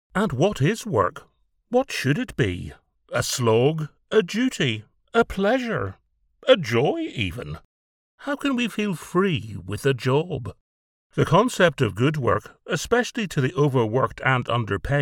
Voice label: Educational Animation Video for social media